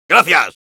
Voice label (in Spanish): Soldier thanks, es